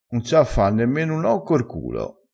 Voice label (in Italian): "un sa fa", dialettale